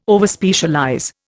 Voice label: "Overspecialize", Indian

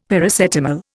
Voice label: "Paracetamol", Indian; Dictionary

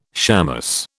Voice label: "shamus", British

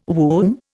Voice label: "womb", Indian

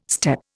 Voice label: "steppe", Indian

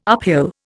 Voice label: "uphill", Indian

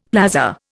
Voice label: "plaza", Indian; Dictionary

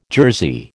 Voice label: "jersey", American

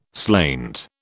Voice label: "slainte", British

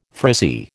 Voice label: "frizzy", American

